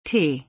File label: The letter T in German